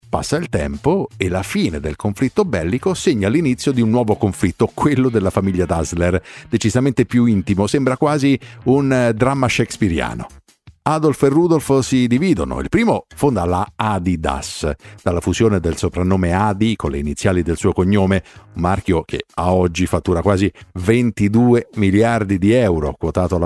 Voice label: italian podcasts

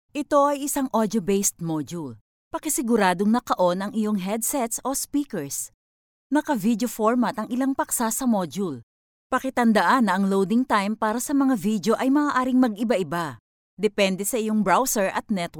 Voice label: tagalog e-learning

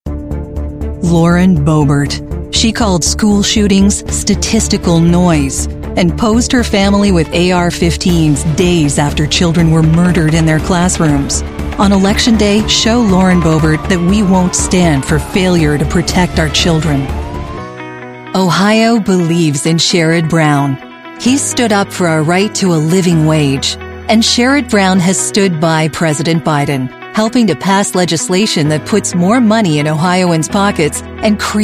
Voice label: english american political ads

